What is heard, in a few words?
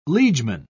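"liegeman", British English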